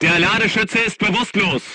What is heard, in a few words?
voice message charger contused, crew tanks german